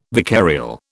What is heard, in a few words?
"vicarial", British